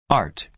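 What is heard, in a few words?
"art", American English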